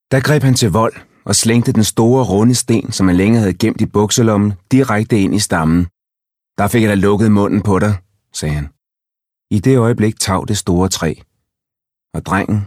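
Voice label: Danish Audiobook Male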